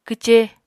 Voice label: "kwce", Apatani

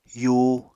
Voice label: "yuu", Apatani

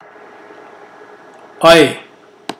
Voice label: "fire", Mokilese

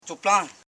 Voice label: "cho plan", Koro

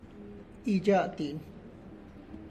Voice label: we'll meet later, Tlacochahuaya Zapotec; (Spanish) nos reunimos luego; itxatin

